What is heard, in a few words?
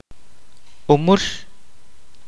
"rabbit", kaqchikel